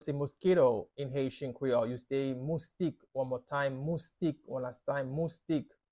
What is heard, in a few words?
Moustik pronunciation by a Haitian teacher, Haitian Creole